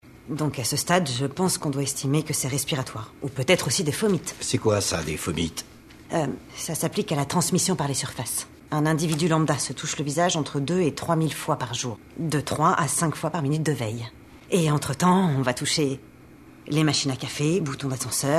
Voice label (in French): Contagion Grave; Kate WINSLET